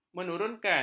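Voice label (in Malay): "menurunkan", Malay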